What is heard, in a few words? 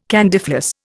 "candyfloss", Indian